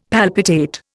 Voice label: "palpitate", Indian; Dictionary

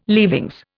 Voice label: "leavings", Indian

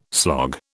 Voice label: "slog", British

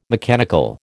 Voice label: "mechanical", American

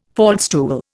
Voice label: "faldstool", Indian

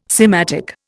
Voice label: "sematic", Indian

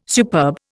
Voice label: "superb", Indian

